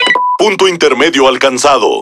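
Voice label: Spanish Speech; Autopilot Checkpoint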